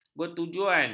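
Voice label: "bertujuan", Malay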